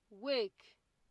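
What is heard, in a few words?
"wake", Nigerian Pidgin, female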